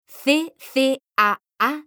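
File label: CCAA, Spanish, male; mekanta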